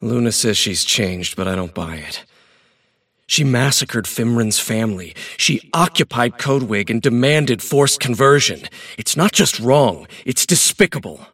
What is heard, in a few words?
monologue; davion; dota